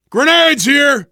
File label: spot grenades, voice, biker; Left 4 Dead 2; survivor